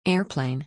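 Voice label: "airplane", English word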